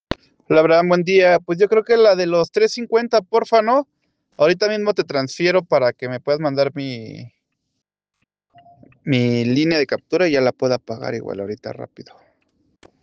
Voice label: whatsapp ptt